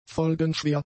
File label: "folgenschwer", German; adjectives